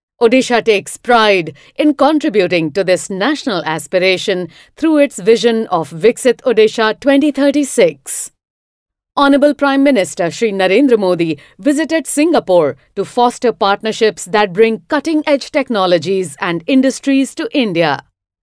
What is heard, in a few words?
Narration English, female voice